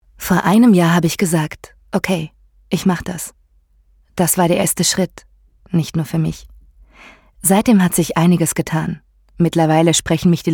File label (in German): deutsch frauen werbung; sprecher